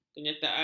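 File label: "kenyataan", Malay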